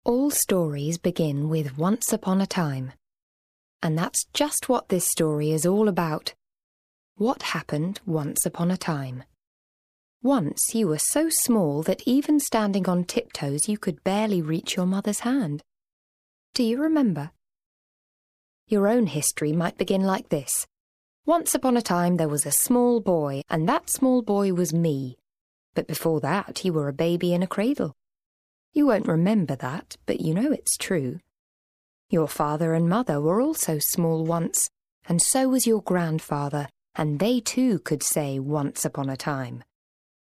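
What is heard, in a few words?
English British, female, narration; voice demos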